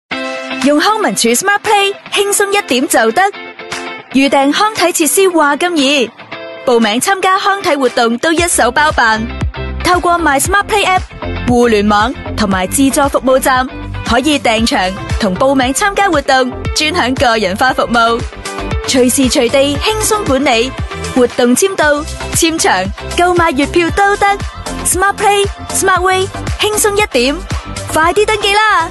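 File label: female Cantonese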